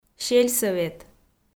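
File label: "сельсӧвет", Komi, female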